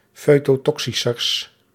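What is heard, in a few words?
"foetotoxischers", Dutch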